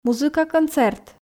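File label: "музыка концерт", Komi, female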